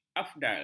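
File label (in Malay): "afdal", Malay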